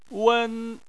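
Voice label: "wen", Chinese, first tone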